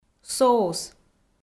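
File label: "соус", Komi, female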